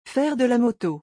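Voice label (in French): "Faire de la moto", French; vocabulaire; le lexique des vacances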